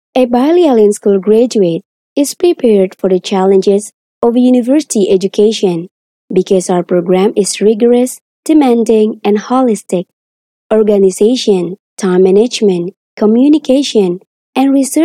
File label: ENGLISH FORMAL